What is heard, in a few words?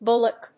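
"bullock", English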